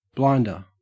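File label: "blinder", English, Australian accent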